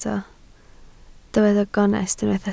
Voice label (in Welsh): "gonest" Welsh